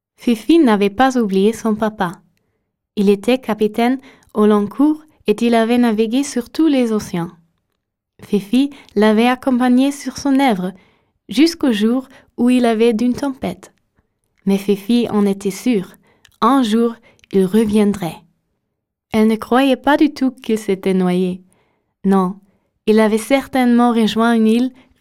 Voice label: french